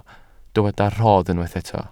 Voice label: "rhodd", welsh